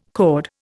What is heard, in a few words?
"cord", Indian